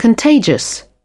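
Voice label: "contagious", British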